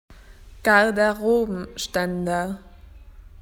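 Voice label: "coat rack", Australian English; PictureDictionary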